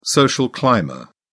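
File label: "social climber", British English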